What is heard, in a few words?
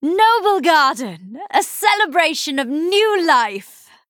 Female Night Elf HAPPY